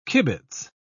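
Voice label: "kibitz", British English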